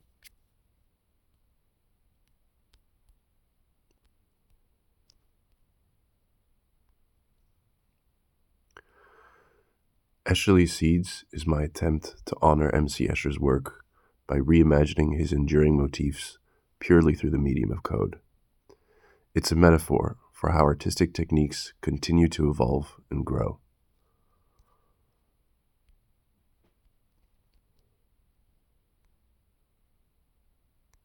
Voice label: voiceover